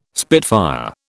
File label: "spitfire", British